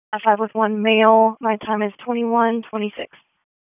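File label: Police Southwest District Dispatch, p25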